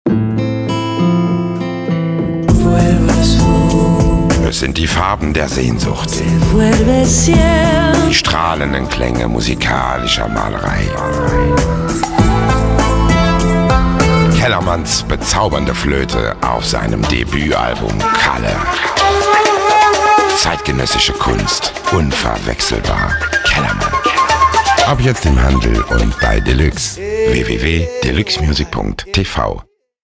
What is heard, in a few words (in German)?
werbung radio; de luxe